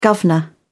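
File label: "guvnor", British English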